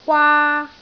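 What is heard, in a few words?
"gwaa1", Cantonese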